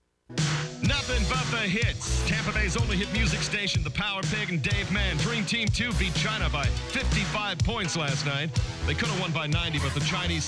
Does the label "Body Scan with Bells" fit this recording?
No